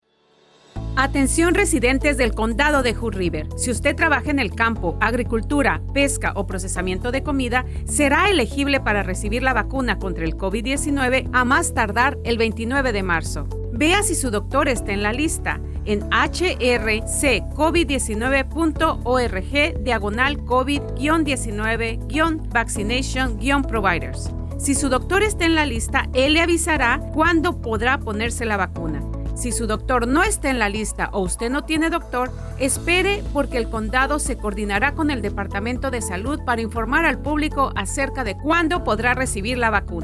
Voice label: Esp; Hood River; Vaccine Radio Spots; covid19